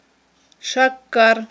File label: "шаккар", aghul